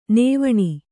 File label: "ನೇವಣ", Kannada